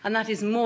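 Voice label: "anD THat is more", Standard Southern British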